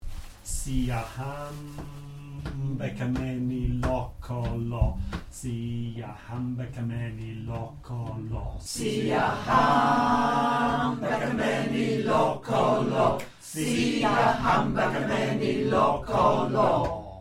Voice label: Xhosa Talk